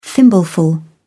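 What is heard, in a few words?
"thimbleful", British English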